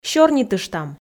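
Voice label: "сёрнитыштам", Komi, female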